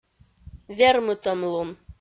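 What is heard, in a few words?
"вермытӧмлун", Komi, female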